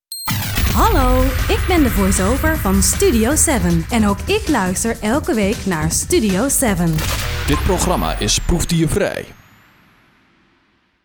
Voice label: voice over jingle